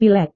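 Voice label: "pilek", Indonesian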